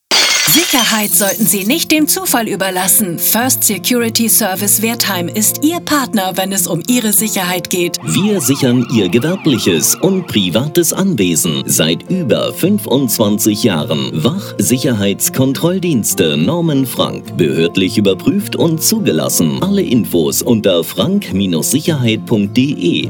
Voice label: RADIOSPOT